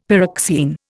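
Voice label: "pyroxene", Indian English; Dictionary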